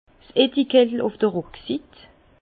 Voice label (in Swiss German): "rucksit", Bas Rhin; vin